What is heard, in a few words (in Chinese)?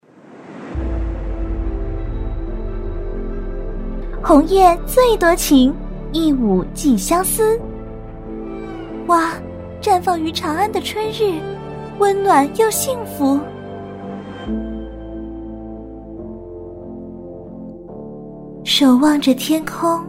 女, 模仿, 多音色, 女性角色模仿; 轻松活泼; 王者荣耀